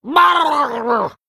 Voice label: Male Saltscale Attack